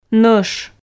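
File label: "нӧш", Komi, female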